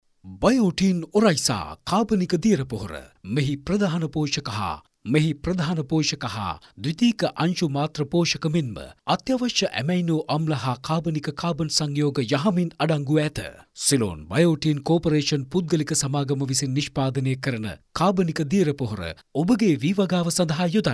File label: MALE COMMERCIAL